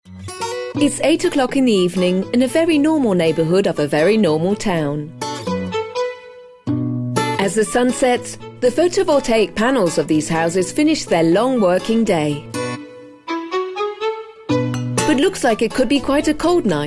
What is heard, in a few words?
female british english house comercial; ideasonora